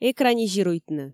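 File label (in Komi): "экранизируйтны", Komi, female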